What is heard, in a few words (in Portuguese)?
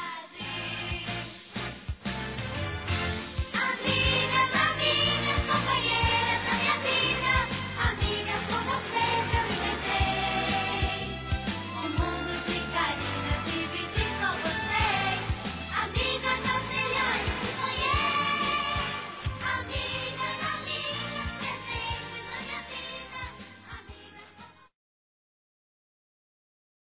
ANIV. AMIGA CRIANÇA-F, Telemensagens; Aniversário Infantil